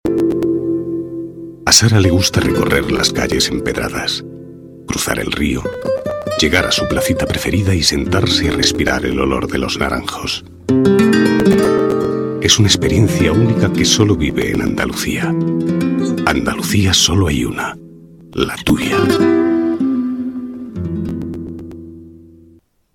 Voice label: emotional calm